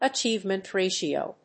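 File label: "achievement ratio", English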